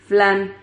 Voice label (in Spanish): "flan", Silabas